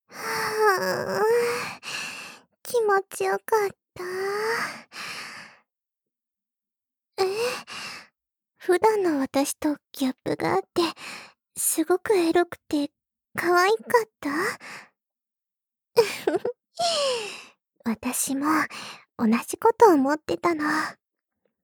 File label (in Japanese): ピロートーク